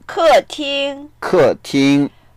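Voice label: "ke4ting1", Mandarin Chinese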